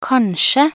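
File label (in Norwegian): "kanskje", Norwegian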